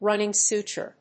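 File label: "running suture", English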